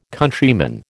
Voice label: "Countryman", American

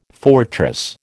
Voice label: "fortress", American

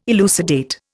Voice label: "elucidate", Indian

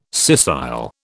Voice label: "scissile", British

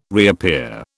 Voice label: "reappear", British

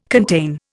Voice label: "contain", Indian

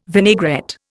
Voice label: "vinaigrette", Indian

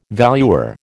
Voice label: "valuer", American

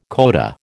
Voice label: "coda", American

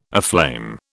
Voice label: "aflame", British

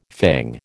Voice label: "fang", American

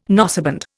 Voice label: "noseband", Indian

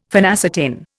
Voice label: "phenacetin", Indian; Dictionary

